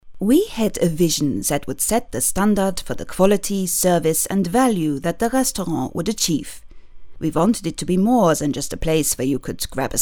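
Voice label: German, English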